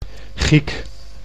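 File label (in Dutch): "giek", Dutch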